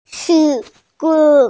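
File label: "shi2wu4", Mandarin Chinese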